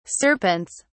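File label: "serpents", British English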